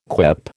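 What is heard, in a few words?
"quip", American English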